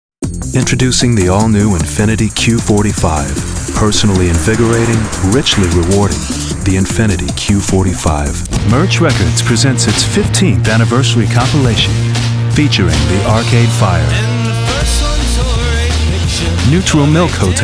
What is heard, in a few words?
commercial